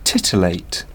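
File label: "titillate", British English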